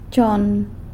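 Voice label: "chon" three, San Lucas Quiaviní Zapotec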